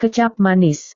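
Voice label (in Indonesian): "kecap manis", Indonesian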